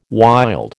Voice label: "wild", American

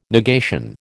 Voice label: "negation", American

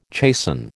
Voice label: "chasten", American

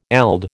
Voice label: "eld", American

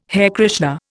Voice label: "Hare Krishna", Indian

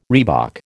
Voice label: "reebok", American

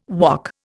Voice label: "wok", Indian

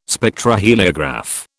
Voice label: "Spectroheliograph", British

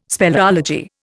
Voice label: "speleology", Indian

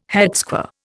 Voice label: "headsquare", Indian

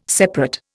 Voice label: "separate", Indian

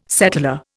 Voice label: "settlor", Indian

